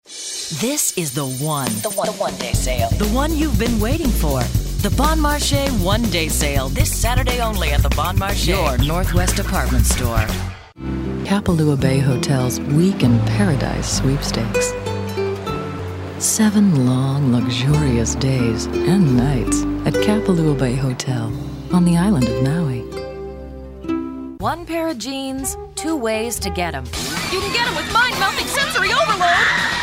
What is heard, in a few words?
Voiceovers